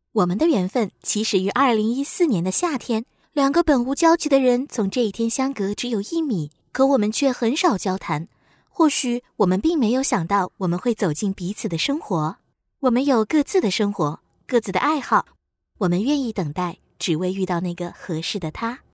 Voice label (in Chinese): 女 中文专题 婚礼